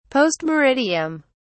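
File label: "post meridiem", British English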